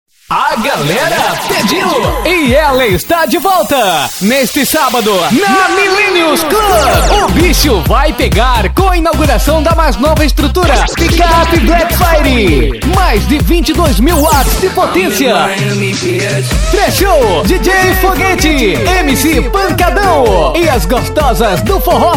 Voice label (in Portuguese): comercial; mileniun club